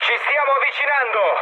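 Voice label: Italian near waypoint mood med, speech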